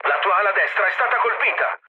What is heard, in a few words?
right wing mood low, speech it; War Thunder